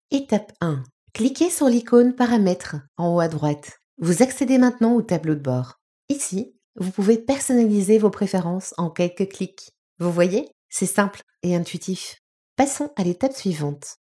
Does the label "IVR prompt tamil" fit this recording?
No